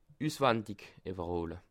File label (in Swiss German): "ussewandig", alsace